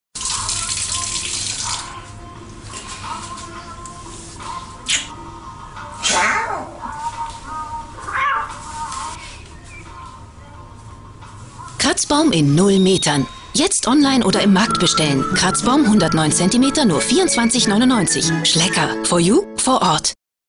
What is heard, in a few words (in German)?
Werbung; Schlecker; Katzerl